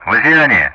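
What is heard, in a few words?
Speech Russian; Common Player Callsign Vaziani; DCS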